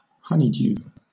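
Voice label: "honeydew", British English; pronunciations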